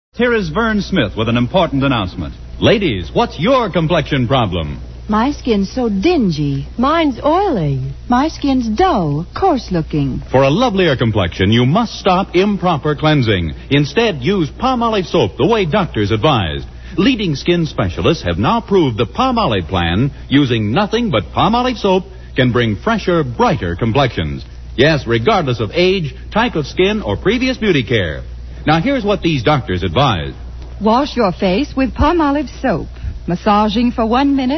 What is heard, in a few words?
Vintage Commercials; Polmolive Soap